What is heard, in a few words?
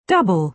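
"double", British English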